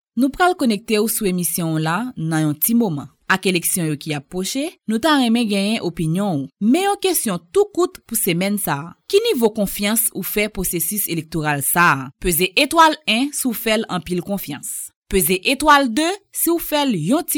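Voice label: Haitian Creole French, female, voice over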